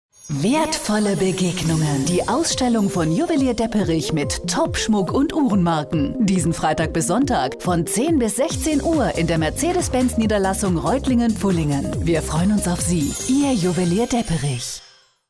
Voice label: Werbung elegant

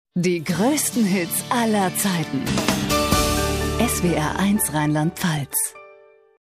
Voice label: Jingle sanft; SWR1

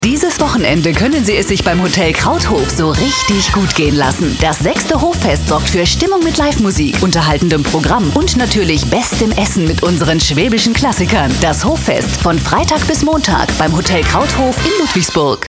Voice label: Werbung dynamisch

